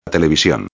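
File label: "television", Spanish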